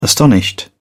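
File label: "astonished", British English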